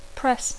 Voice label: "press", English